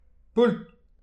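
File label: "poultr", Breton; petit precis de prononciation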